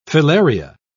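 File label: "filaria", English, British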